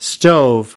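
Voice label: "stove", English word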